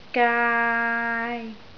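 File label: "gaai3", Cantonese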